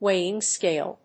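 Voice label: "Weighing scale", English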